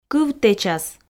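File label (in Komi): "кывтэчас", Komi, female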